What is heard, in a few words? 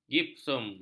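"gipsum", Malay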